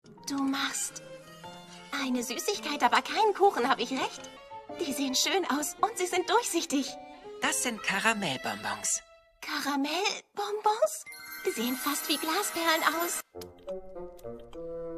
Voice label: Synchron - Trickfim - neugierig, aufgeweckt, Children's Voice (Kinderstimme), German; Lip-Sync (Synchron)

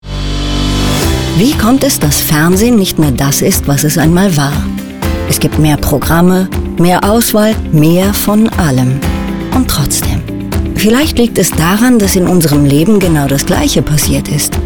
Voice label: TV-Werbung, Commercial (Werbung), German; Fernsehen - Demo

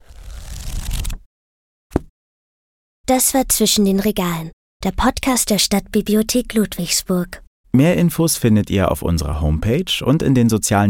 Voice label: Absage Podcast

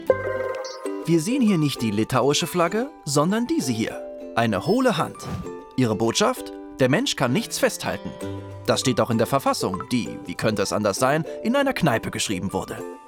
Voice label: Kommentar; ARTE mit Schalk

